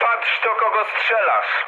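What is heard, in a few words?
player friendly fire, mood low, Polish speech; War Thunder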